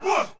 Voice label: "what", voice